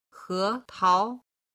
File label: "he tao", Chinese